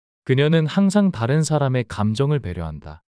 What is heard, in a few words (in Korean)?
문장 남자; 그녀는 항상 다른 사람의 감정을 배려한다